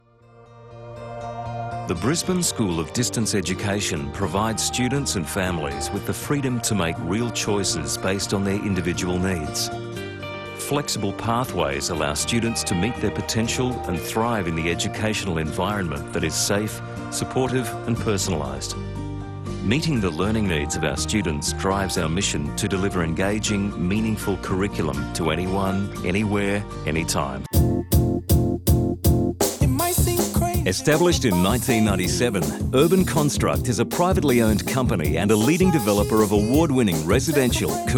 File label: corporate narration